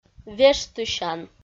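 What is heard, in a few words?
"вештысян", Komi, female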